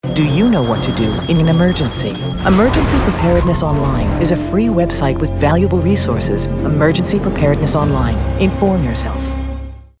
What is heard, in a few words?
Emergency PSA